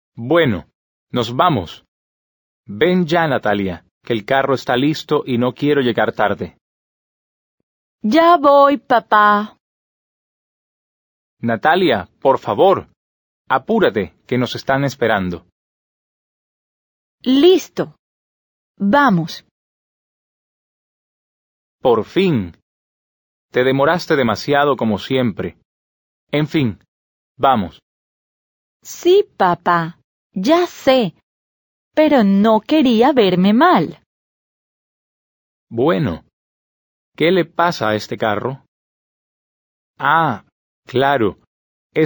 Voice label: Dialogue, Spanish; Learn Conversational Spanish Now